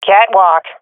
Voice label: loc catwalk, swat fem; vo; Counter Strike Model Pack; CS:GO

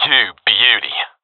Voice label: cheer; Counter Strike Model Pack; CS:GO

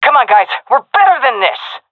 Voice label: round losing, seal fem; vo; Counter Strike Model Pack; CS:GO

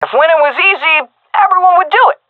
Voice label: round won, seal fem; vo; Counter Strike Model Pack; CS:GO

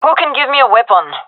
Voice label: request weapon, gendarmerie fem; vo

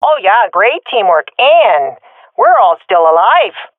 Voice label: round won nocasualities, swat fem; Counter Strike Model Pack; CS:GO

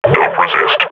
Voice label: firing, combine grunt; Half Life Model Pack

